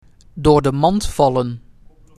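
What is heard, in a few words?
"Door de mand vallen", Dutch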